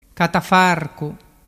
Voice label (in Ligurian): "catafarcu", dialettu